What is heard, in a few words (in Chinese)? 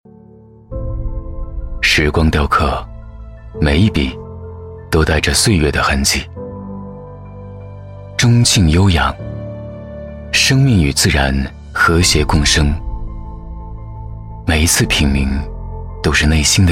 男505 大气质感, 男505年轻磁性男声配音; 时光雕刻